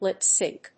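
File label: "lip sync", English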